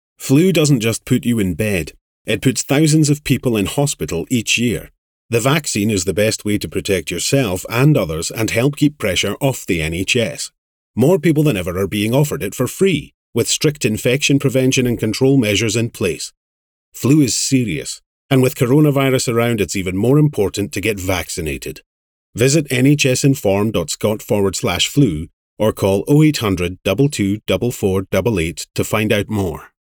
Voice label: flu radio advert